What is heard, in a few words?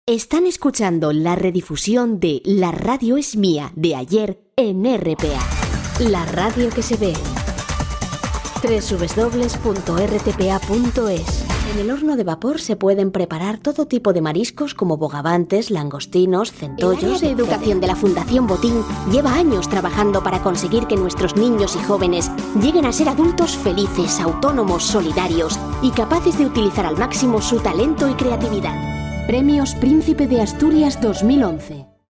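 Spanish European, Corporate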